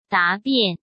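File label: "答辩", Mandarin Chinese; hsk; chinese-flashcards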